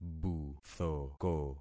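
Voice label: "buthoko", concatenated syllables; Affixing experiment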